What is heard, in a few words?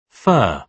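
"fur", British English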